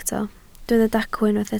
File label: "dacw", Welsh